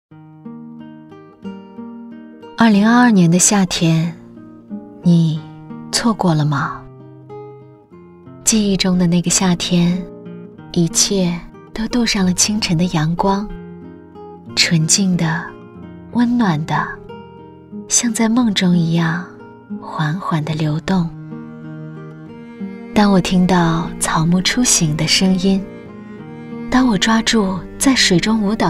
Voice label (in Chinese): 旁白 抒情; 自在的茶